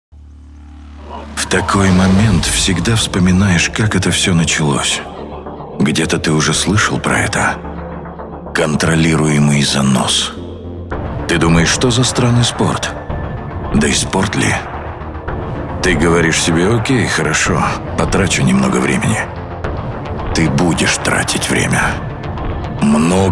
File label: rus